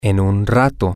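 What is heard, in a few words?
in a while, Spanish, male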